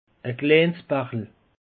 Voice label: "bachel", alsace; peche

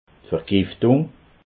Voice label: "vergiftung", alsace

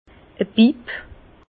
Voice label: "bipp", Bas Rhin; vin; cuve de transport

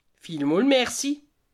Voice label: "merci", alsace

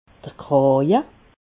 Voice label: "kraje", Alsace

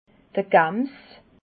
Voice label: "gams", alsace

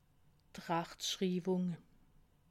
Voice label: "rachtschriwung", alsace

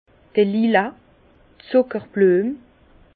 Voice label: "lila", Bas Rhin; lilas; vin

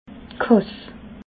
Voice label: "kass", alsace

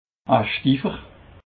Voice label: "schtifer", alsace

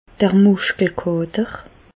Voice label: "muschkelkater", Bas Rhin; medecine; courbatures myalgies

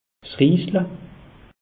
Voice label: "riesle", alsace; meteo

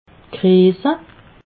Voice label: "greese", alsace

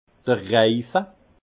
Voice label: "reife", alsace; vin; cercle

